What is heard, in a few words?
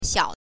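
"xiao", Mandarin Chinese, neutral tone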